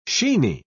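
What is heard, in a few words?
"sheeny", British English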